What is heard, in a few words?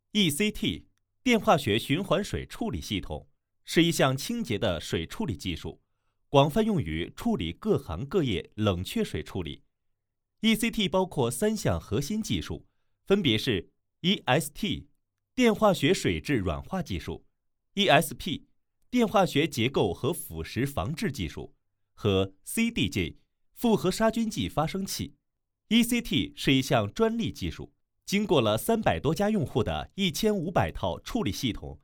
Chinese, Male